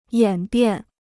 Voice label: "演变", Chinese

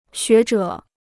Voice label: "学者", Chinese

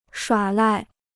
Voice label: "耍赖", Chinese

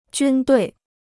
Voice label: "军队", Chinese

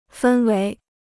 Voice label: "氛围", Chinese

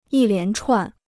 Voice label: "一连串", Chinese

